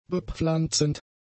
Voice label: "bepflanzend", German; adjectives

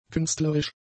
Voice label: "künstlerisch", German; adjectives

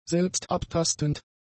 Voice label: "selbstabtastend", German; adjectives

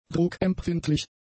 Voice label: "druckempfindlich", German; adjectives

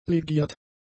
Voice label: "legiert", German; adjectives

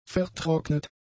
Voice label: "vertrocknet", German; adjectives

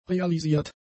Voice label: "realisiert", German; adjectives

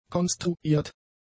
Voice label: "konstruiert", German; adjectives

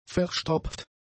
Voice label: "verstopft", German; adjectives

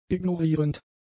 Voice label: "ignorierend", German; adjectives

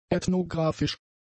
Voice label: "ethnographisch", German; adjectives

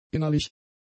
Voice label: "innerlich", German; adjectives

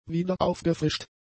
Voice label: "wiederaufgefrischt", German; adjectives

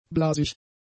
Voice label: "blasig", German; adjectives

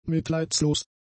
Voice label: "mitleidslos", German; adjectives

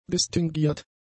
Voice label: "distinguiert", German; adjectives

